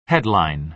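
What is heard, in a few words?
"headline", American English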